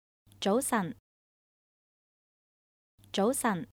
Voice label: Good morning Chinese